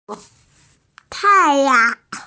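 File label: "tai4yang2", Mandarin Chinese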